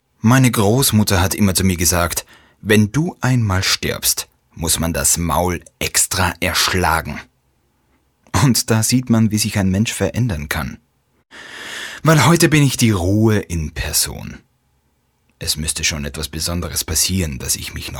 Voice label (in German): Sprecherdemo Hoerbuch